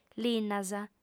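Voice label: "linnas", female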